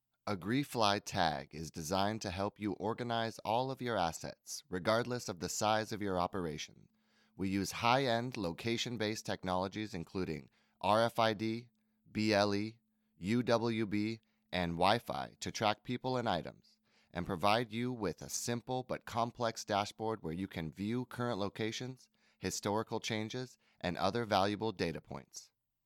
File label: voiceover; agreefy; Data Tracking; Product Tutorial